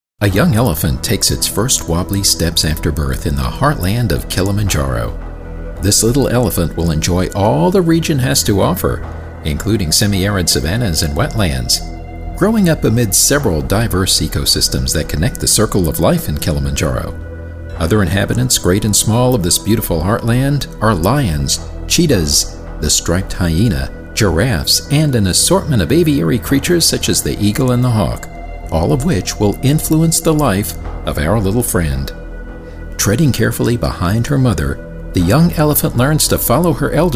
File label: documentary